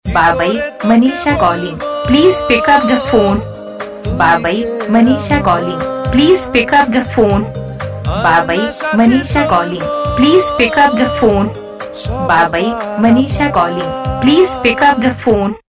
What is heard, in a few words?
calling pick up the phone saying